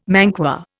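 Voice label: "manqué", Indian English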